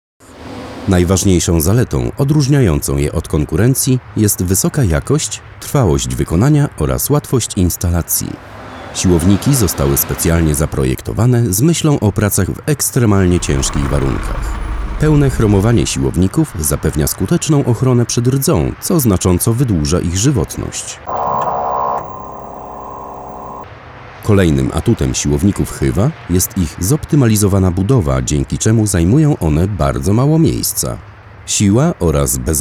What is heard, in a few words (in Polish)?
film korporacyjny prezentacja